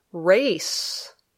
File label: "race", English